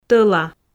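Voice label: "тӧла", Komi, female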